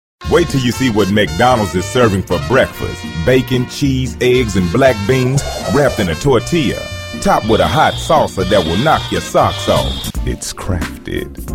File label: Commercial